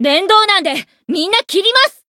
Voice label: Voice variable chant, jp

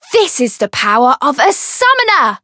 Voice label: variable chant; tiramisu

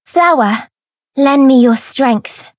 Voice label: Voice variable chant; sophia

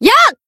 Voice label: Voice attack jp; kid

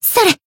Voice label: attack jp

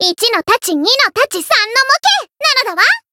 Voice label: limitbreak, jp

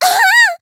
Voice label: Voice damaged

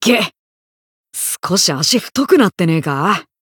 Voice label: Voice growth, jp; ciel

